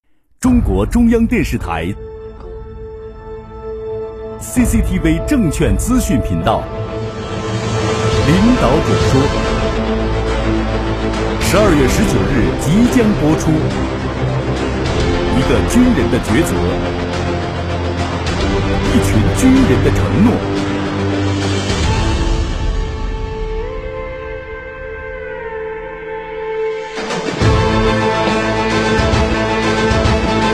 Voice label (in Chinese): 男 栏目片头; 中央台; 领导者说